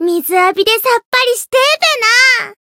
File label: win, jp